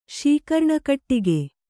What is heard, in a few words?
"ಶೀಕರ್ಣಕಟ್ಟಿಗೆ", kannada